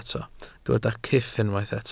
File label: "cyff", Welsh